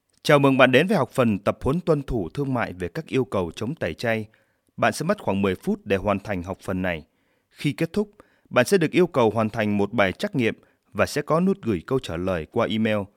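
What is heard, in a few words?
Vietnamese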